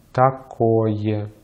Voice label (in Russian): "такое", Russian; Consonantes en ruso; easypronunciation